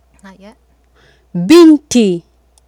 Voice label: "binti", Swahili